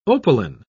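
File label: "opaline", British English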